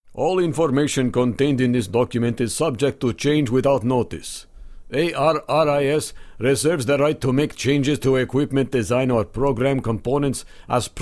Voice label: Russian; Dodge